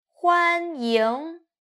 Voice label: "huanying", Chinese